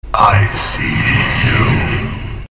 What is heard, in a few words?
Dark Voice; Saurons